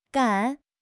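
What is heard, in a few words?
"gan3", Chinese; HSK4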